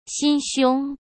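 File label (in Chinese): "心胸", Mandarin Chinese; hsk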